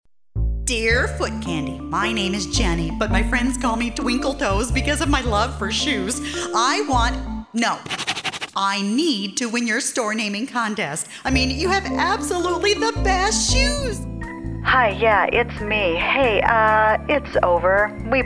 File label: Character Demo